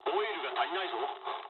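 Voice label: damaged oil mood med, speech japanese; War Thunder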